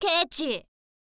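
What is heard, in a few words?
"kechi", girl, Japanese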